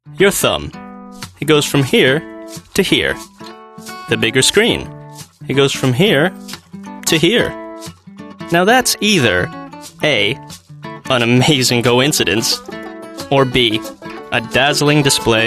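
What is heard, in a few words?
US Commercial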